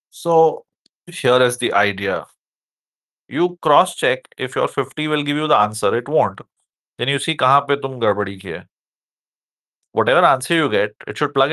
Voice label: voice message